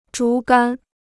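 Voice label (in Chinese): "竹竿", Chinese; zhú gān; Bambusstab; Bambusstange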